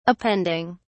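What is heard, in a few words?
"appending", British English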